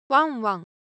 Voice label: Japanese Sound, Ideo Phones